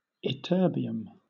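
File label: "ytterbium", British English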